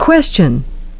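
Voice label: "question", English; ˡkwes.ʧən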